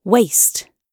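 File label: "waste", British English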